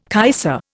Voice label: "kaiser", Indian

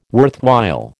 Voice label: "worthwhile", American

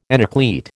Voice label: "enucleate", American

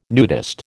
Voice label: "nudist", American

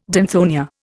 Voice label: "danthonia", Indian

